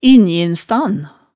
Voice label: "injinstan", hemsedalsmaalet

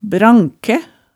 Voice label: "brankæ", hemsedalsmaalet tale

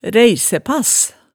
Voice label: "ræisæpass", Hemsedal

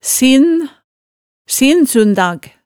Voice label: "sinn", hemsedalsmaalet

